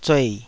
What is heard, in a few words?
"zuei3", taiwanese